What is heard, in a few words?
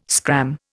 "scram", Indian